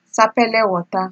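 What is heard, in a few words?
"sapele water", Nigerian Pidgin, female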